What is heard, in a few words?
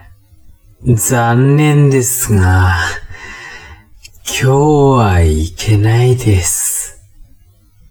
man